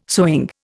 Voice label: "sewing", Indian